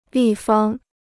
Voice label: "立方", Chinese

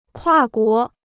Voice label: "跨国", Chinese

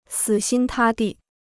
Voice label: "死心塌地", Chinese

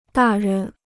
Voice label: "大人", Chinese

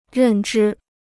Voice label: "认知", Chinese

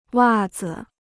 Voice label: "袜子", Chinese

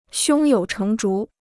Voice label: "胸有成竹", Chinese

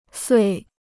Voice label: "岁", Chinese

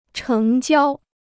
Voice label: "成交", Chinese

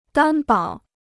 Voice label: "担保", Chinese

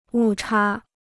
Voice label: "误差", Chinese

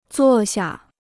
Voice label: "坐下", Chinese

